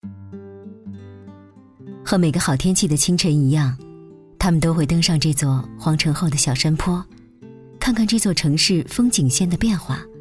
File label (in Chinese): 纪录片, 自然讲述柔和温婉; 记录城市